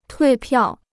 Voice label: "退票", Chinese